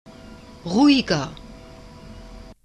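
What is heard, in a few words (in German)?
"ruhiger", German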